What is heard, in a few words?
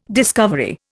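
"discovery", Indian English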